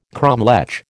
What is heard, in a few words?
"cromlech", American